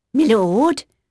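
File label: English female, voice; Pirates Of The Caribbean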